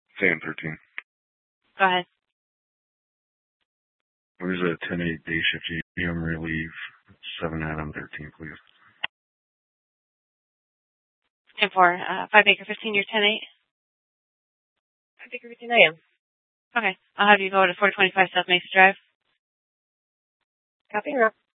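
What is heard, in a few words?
police dispatch, scanner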